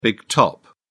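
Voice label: "big top", English, British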